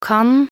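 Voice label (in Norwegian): "kan", Norwegian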